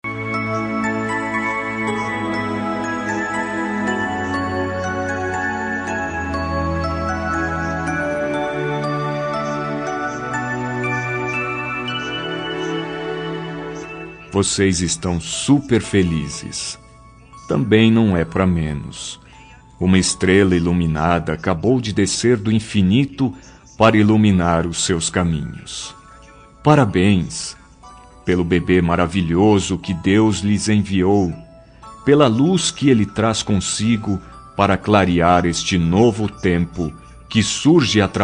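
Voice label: male, Telemensagem; Telemensagem de Maternidade; Para Casal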